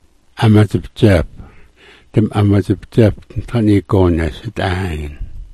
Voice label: "amadzipdzap", smalgyax